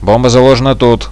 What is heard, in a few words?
bomb here, radio bot; Reallite